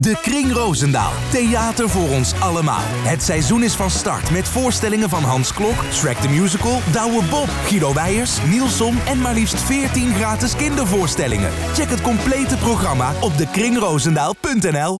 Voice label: radio; dekring roosendaal